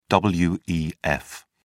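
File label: "wef", British English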